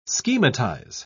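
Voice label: "schematize", British English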